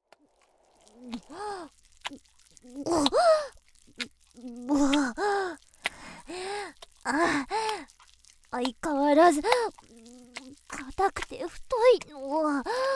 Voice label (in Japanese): 騎乗位エッチ 効果音入り